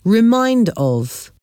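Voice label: "remind of", English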